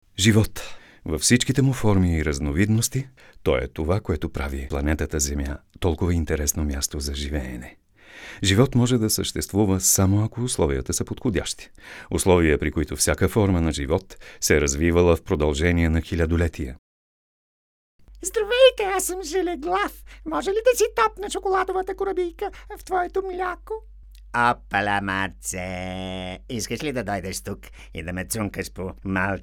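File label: Bulgarian, male, narration; voice demos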